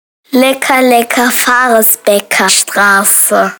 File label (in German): vor Varresbecker Strasse, Ansagen Schwebebahn Kinder; schwebebahnkinder erobern die neue bahn